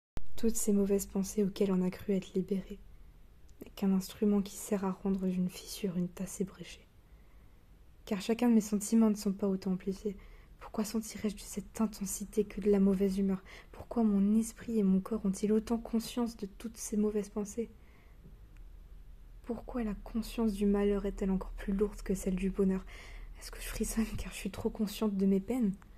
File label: French, Female